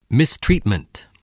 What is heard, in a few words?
"mistreatment", voice, spelling